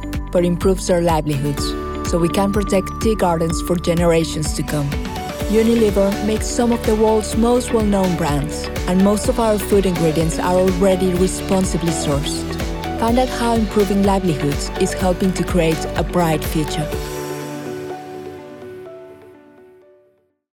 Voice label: female, Spanish Mexican, English with Mexican accent corporate; voices; unilever; devine voices